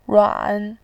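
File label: "ruan", Mandarin Chinese, third tone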